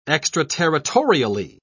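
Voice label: "extraterritorially", British English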